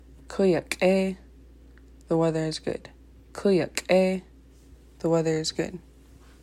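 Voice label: the weather is good, Tlingit; summer weather; july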